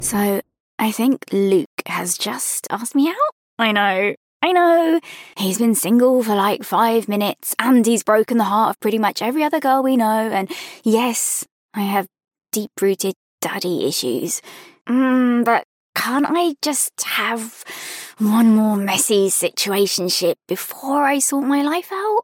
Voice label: Drama; home studios